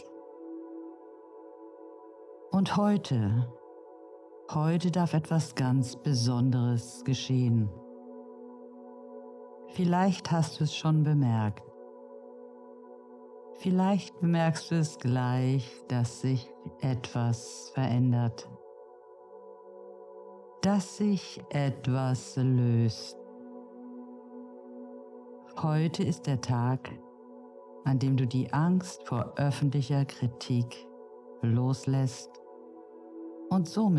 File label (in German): Stimme Musik